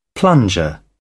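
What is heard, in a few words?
"plunger", British English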